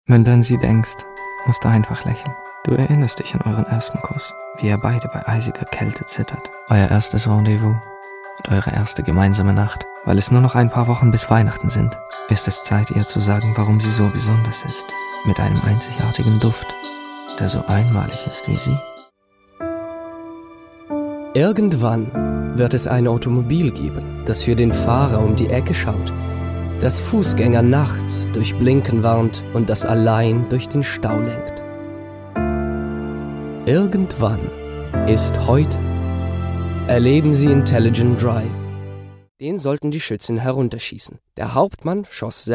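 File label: German General; GoLocalise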